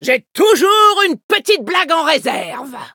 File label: levelup, fr; cookie run